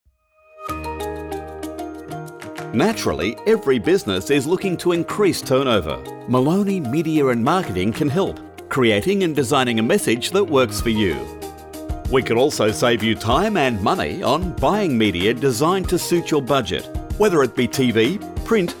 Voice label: WEB VO With Music; Moloney Media